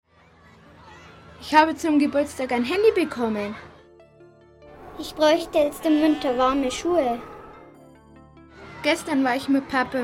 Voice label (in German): Werbung Kinderhilfe